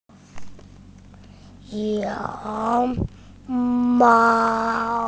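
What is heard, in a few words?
"panda", Mandarin Chinese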